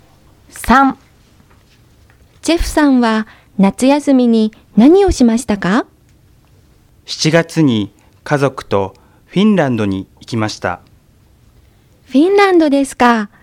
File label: Conversation